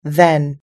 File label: "then", us english